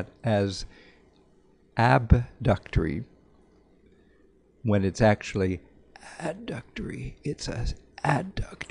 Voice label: Tonic Stage whisper variant